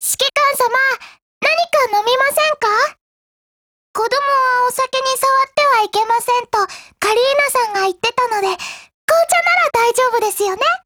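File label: dialogue, Japanese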